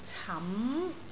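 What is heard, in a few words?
"cam2", Cantonese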